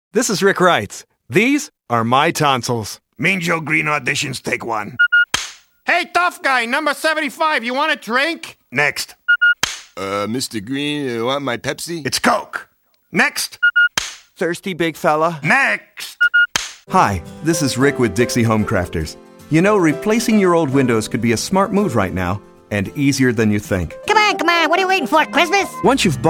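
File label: Commercial Voice Over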